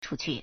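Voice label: "chūqù", Chinese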